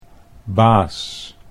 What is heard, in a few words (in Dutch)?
"baas", Dutch